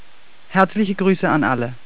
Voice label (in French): allemand; voyager